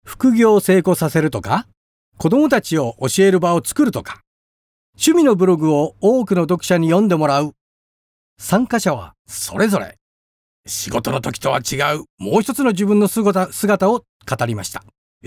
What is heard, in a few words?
japanese m character; voice demos